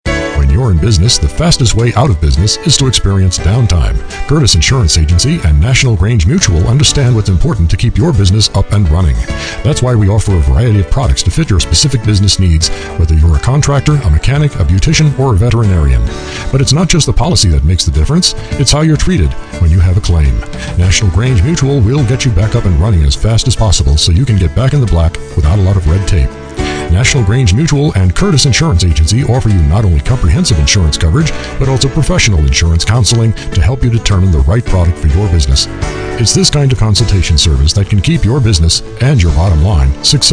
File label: Radio Ad; Curtis Insurance